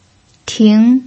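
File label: "ting", Mandarin Chinese pinyin, second tone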